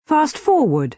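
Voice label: "fast-forward", British English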